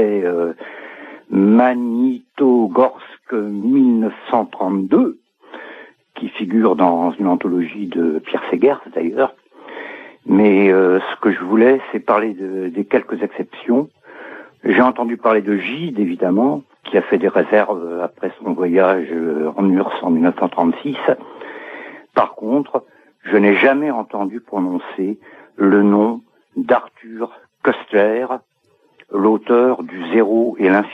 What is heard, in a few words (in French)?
Mort Staline repondeur